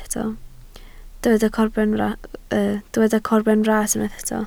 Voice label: "corbenfras", Welsh